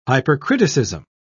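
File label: "hypercriticism", British English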